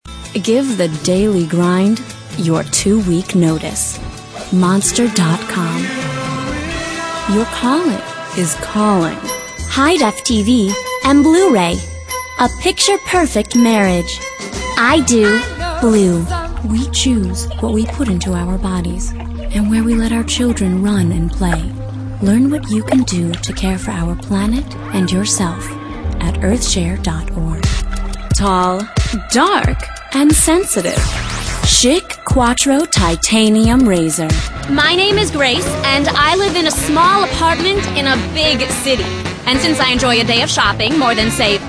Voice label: female late 20s mid 30s contemp